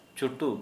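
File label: "mouse", Santali, male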